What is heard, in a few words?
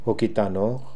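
"Okidanokh", pronunciations; Fourth Way; Beelzebub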